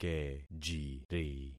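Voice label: "kejiri", concatenated syllables; Affixing experiment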